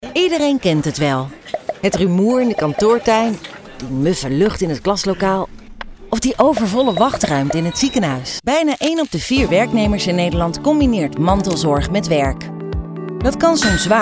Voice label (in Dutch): vriendelijk